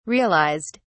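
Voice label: "realised", British English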